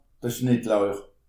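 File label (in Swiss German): "schnittlauch", Alsatian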